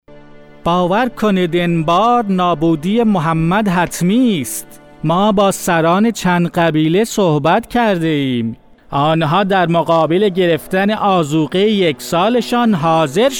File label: persian male